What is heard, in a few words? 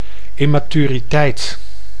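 "immaturiteit", Dutch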